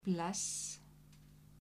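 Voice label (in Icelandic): "bless", Icelandic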